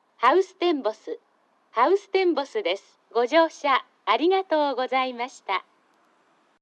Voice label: 到着放送; ハウステンボス; 乗り換え放送はありません。

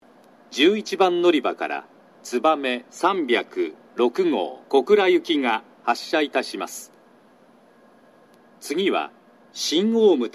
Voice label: 11番のりば発車放送; 新玉名; つばめ306号 小倉行き

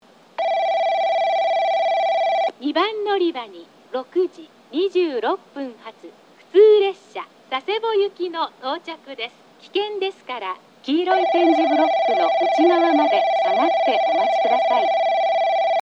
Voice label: 2番のりば接近放送; 普通 佐世保行き; takeo onsen

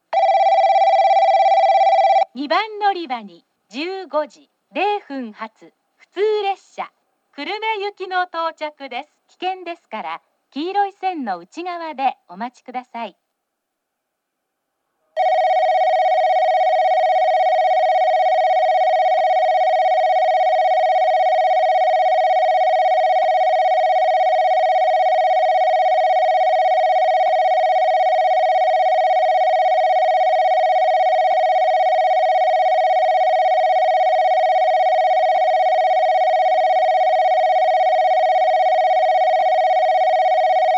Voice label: 接近放送＋ベル 女声

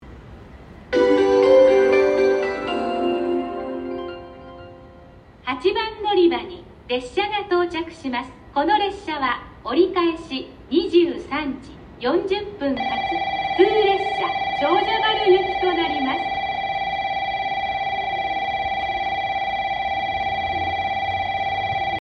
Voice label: 博多8番 折返接近（普通 長者原行き）→この列車では「危険ですから〜」以下の文が放送されていませんでした。

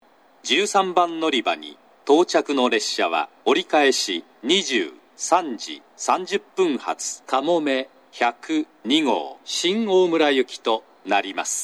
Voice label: 長崎 西九州新幹線ホーム 自動放送, 13番のりば接近放送

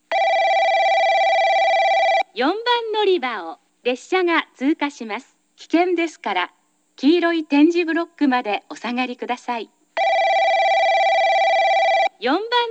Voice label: 4番のりば通過放送

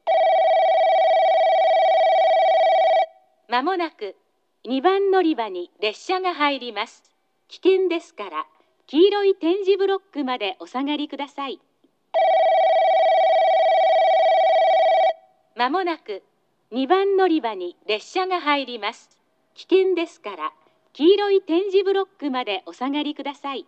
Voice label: 2番のりば接近放送 女声; 2 早岐方面; 武雄温泉; スピーカーは、1番のりばはソノコラムとクリアホーン、2，3番のりばはソノコラムです。

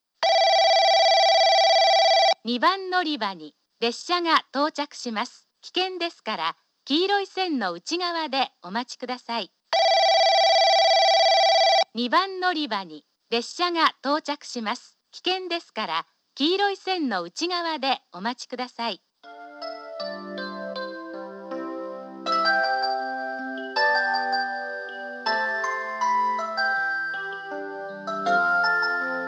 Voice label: 2番のりば接近放送 女声＋接近メロディ; 宇佐

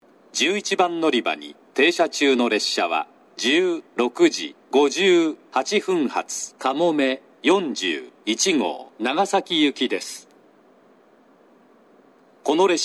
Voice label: 11番のりば停車中放送; 西九州新幹線; かもめ41号 長崎行き; takeo onsen

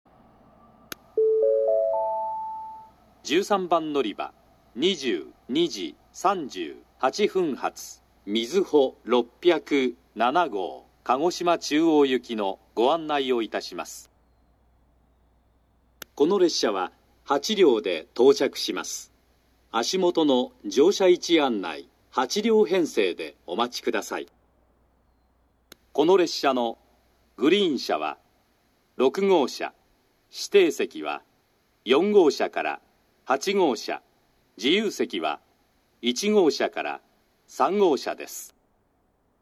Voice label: 13番のりば案内放送, 新幹線ホーム; 新鳥栖; みずほ607号 鹿児島中央行き

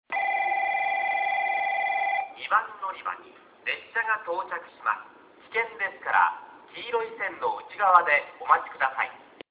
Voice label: 2番のりば接近放送 男声; 福工大前; 更新前の放送

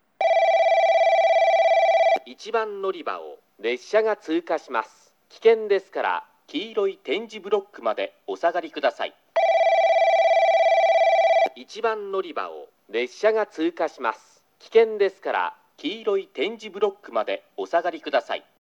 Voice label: 通過放送＋ベル 男声; JACROS簡易型; 接近放送と通過放送の2種類がありますが、時刻・行先の放送されない簡易放送で、遅延放送なども流れません。

